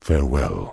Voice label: Tauren Male Warrior NPC Farewell

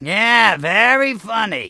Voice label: Goblin Male Gruff NPC Pissed

